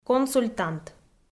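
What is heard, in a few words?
"консультант", Komi, female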